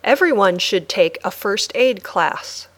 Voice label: Dictation